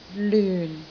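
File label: "lyun4", Cantonese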